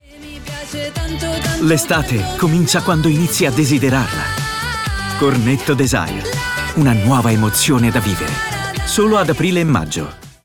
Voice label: ADV, ITALIAN MALE; commercial; Cornetto Desire 2023

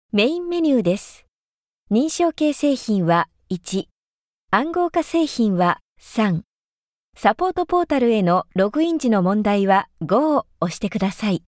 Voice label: JAPANESE WOMEN

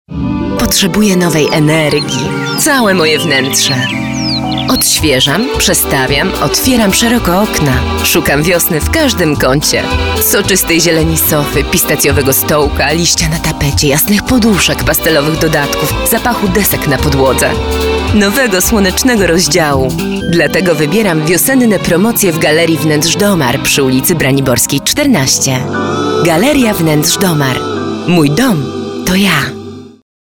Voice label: POLISH FEMALE

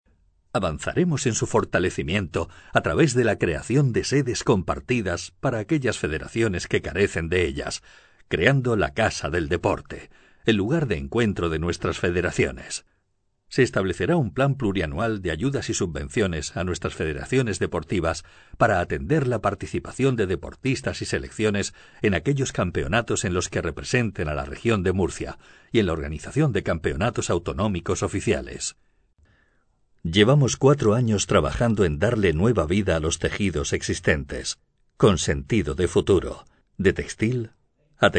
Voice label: CORPORATE, Spanish, male

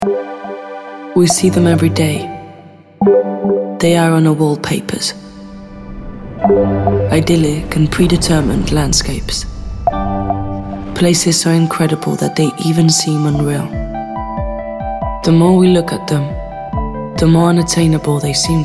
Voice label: commercial, ENGLISH US FEMALE

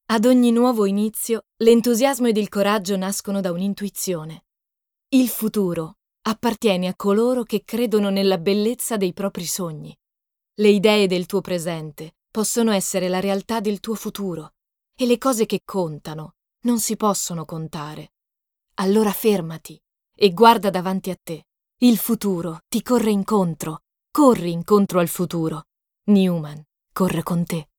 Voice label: Spot Italian, ITALIAN FEMALE

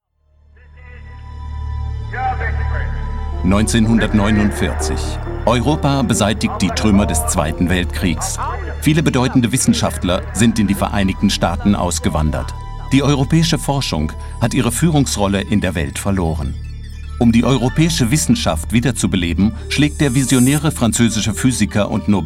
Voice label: Tutorial, GERMAN MALE

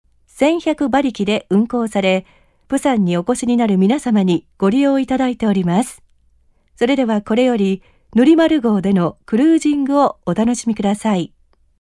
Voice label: JAPANESE WOMEN

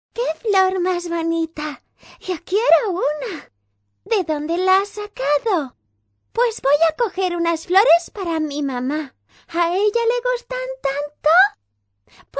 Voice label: KID VOICE, SPANISH FEMALE

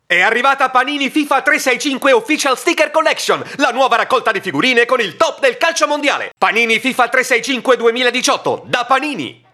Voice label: commercial, italian, male

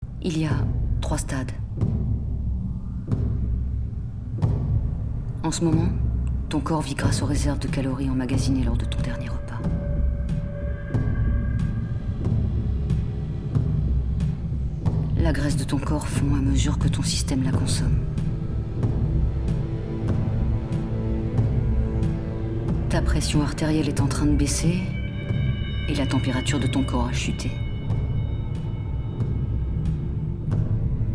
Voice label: Character tris, FRENCH FEMALE

